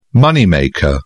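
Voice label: "moneymaker", English, British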